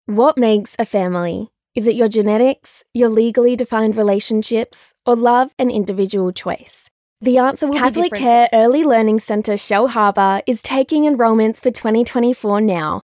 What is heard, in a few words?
Soft Sell